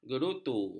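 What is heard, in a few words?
"gerutu", Malay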